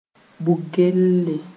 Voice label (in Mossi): "bug-gelle", moore